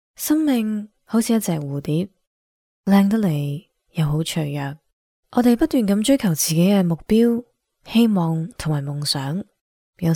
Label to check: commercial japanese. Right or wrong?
Wrong